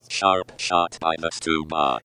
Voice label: robot voice sharp shot by the stubot